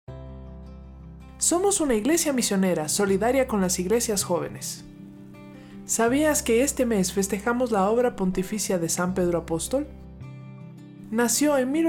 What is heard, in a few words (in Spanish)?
spot F; Vocaciones Nativas